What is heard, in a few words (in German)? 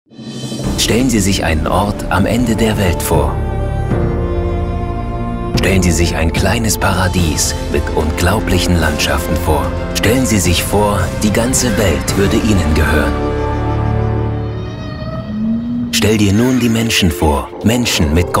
Doku Chile Einladende Natur